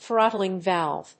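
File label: "throttling valve", English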